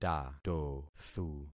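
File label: "tatothu", concatenated syllables; Affixing experiment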